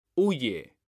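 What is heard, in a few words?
"huye", Spanish, male; mekanta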